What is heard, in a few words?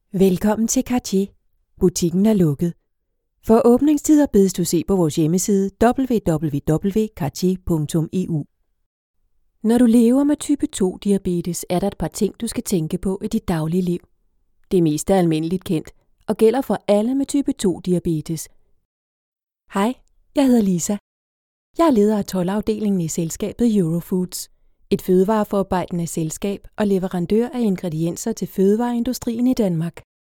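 Danish informativ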